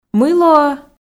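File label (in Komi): мый лоӧ, Komi, female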